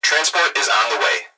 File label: Human Young Male Voice Police Dispatch; Emergency